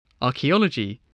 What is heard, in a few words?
"archaeology", English